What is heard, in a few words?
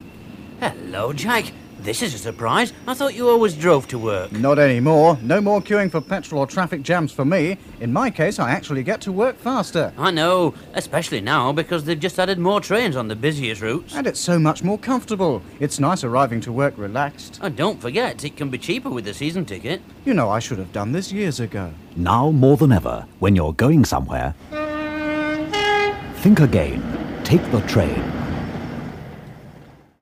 Radio Advert; Radio Hallam; Take The Train